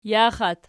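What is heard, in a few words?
"jaxat" nominative, Archi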